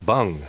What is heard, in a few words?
"bung", English; noun